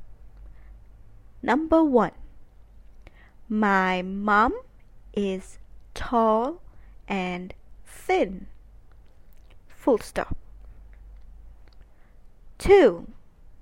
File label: Dictation